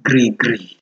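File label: "grigri", Nigerian Pidgin, male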